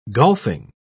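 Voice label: "golfing", British English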